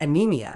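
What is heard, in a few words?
"anaemia", British English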